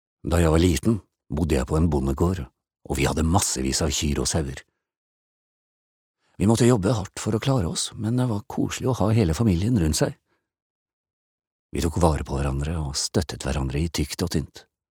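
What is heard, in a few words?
Norwegian dub man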